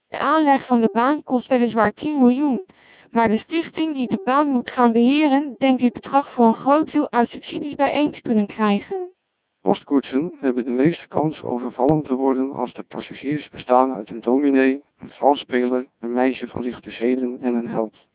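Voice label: speech CODEC2 1600 bps, Dutch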